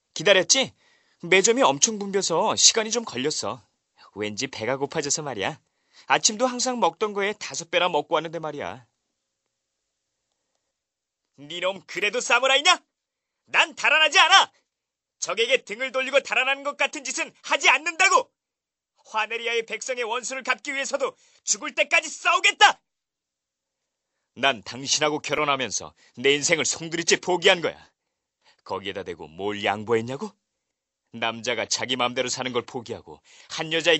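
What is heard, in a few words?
Korean male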